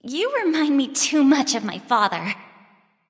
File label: taunt directed; poseidon; smite; medusa